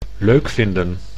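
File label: "leuk vinden", Dutch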